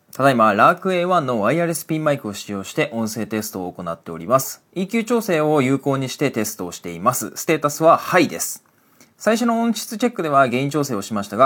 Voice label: Lark A1 EQ High; hollyland lark a1 review